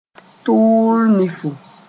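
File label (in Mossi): "tʋʋl-nifu", Mooré; climax of the heat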